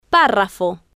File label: "parrafo", spanish